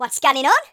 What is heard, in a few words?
"what the", Geordie; speech